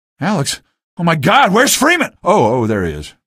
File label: greet, male; video games; npc; source engine; episodic; episode 1